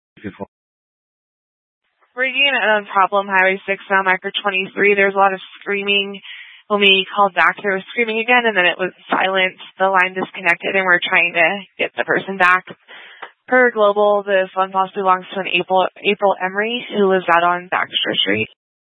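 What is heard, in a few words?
Sheriff Dispatch, scanner audio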